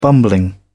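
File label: "bumbling", British English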